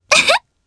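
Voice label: Vox Happy Japanese; Frey; kingsraid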